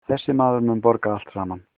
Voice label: pay, icelandic

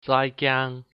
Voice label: goodbye, hakka

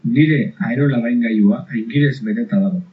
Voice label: hovercraft, Basque

